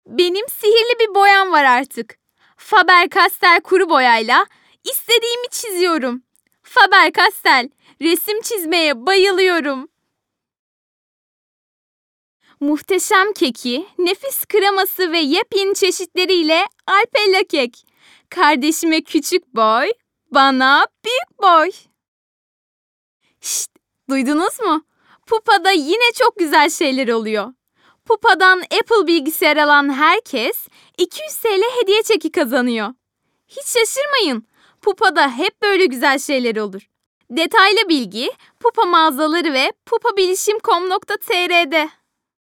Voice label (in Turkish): REKLAM